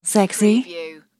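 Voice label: Female Says Sexy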